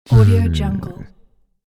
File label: bandit game character considers